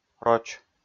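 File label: "роч", Komi, male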